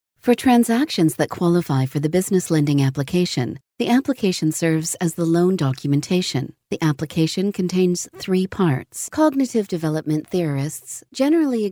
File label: english american e-learning